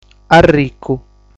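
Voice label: "Arricu", Sardinian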